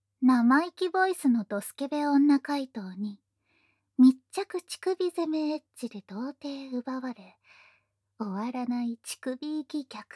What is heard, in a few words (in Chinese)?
標題朗讀; wav-noSE